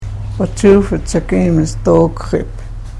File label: karuk